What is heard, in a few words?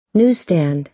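"newsstand", American English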